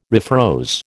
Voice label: "refroze", American